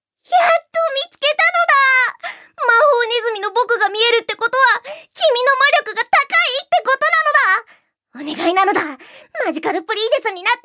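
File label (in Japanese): セリフ, 女性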